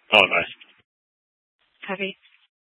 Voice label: Superstition Dispatch, Mesa PD; 4 Superstition Dispatch